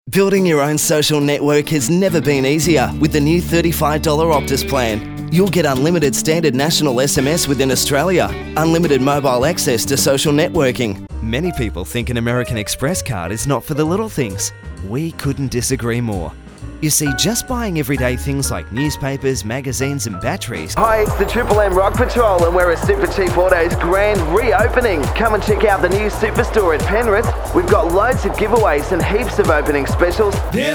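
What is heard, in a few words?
English, Australian, male, retail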